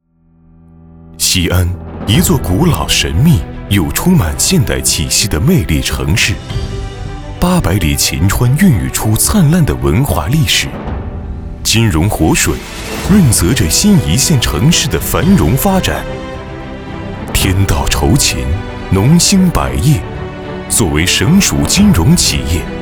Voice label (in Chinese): 男, 银行宣传; 银行宣传样音; 秦农银行